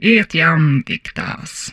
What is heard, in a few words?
humanoid female; Stellaris; humanoids